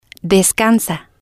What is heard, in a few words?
"descansa", Spanish